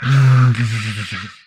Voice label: humanoid portrait male; Stellaris; humanoids